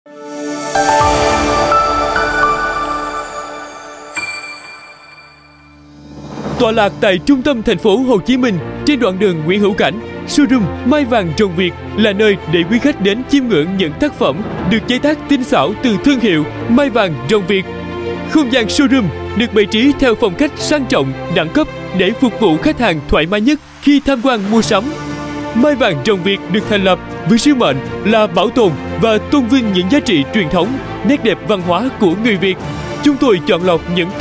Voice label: South Male, Vietnamese; Showroom Mai Vàng Rồng Việt